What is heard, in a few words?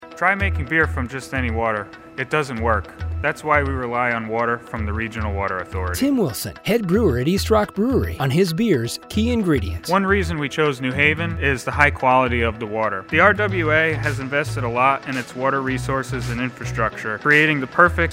radio ad